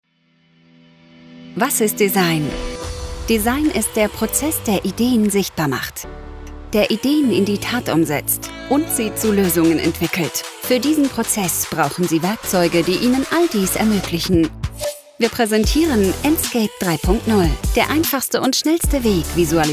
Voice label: produktvideo motivierend inspirierend; release enscape

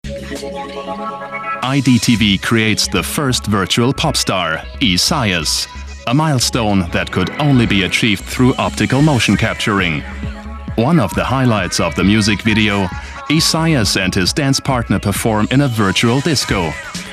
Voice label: imagefilm us engl; idtv

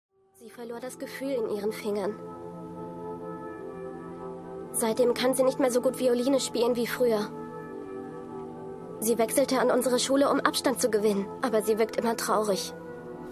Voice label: synchron; clannad; sugisaka

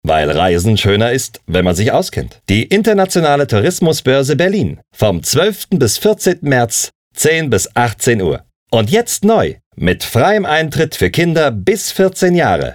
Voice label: Werbung; itb

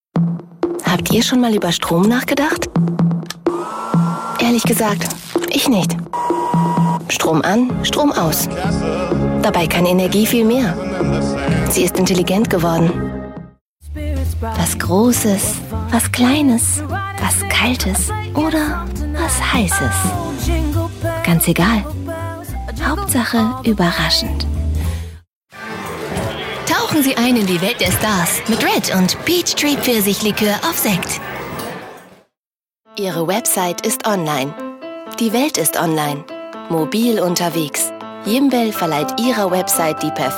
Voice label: werbemedley stimme

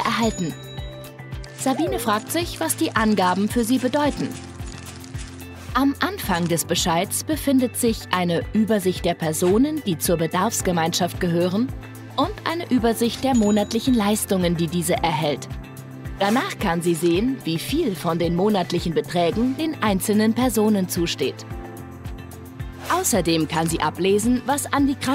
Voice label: erklaerfilm bewilligungsbescheid

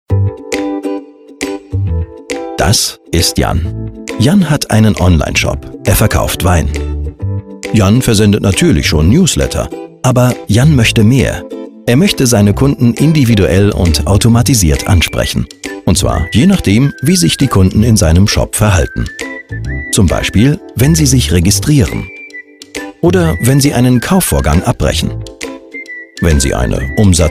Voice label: erklaerfilm; kryd

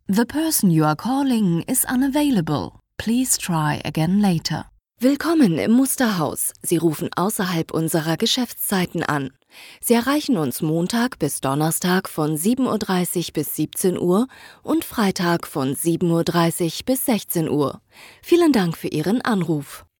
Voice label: telefonansage eng deutsch

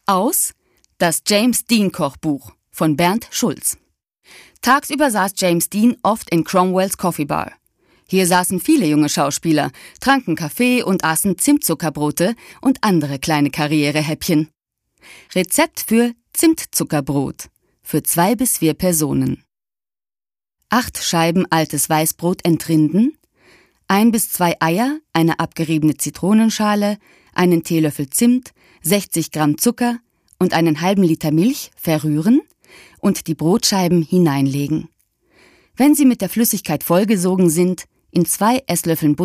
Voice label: sprechprobe kochrezept

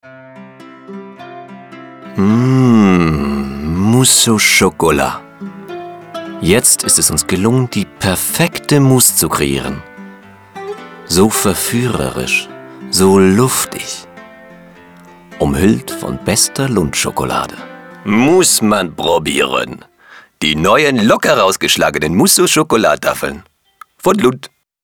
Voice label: werbung; Bern; Muttersprache Deutsch/Schweizerdeutsch; lundt

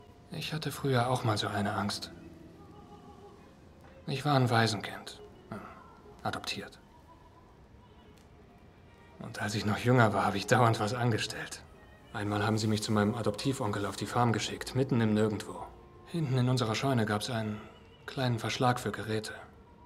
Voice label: synchron melancholisch

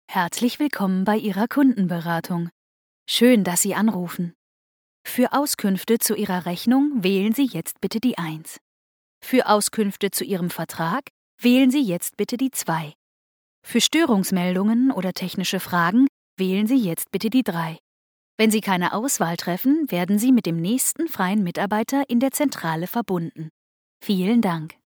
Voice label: telefonansage deutsch tief

